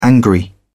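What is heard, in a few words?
"angry", British English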